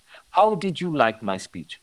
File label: Voice Acting es M